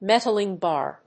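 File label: "metaling bar", English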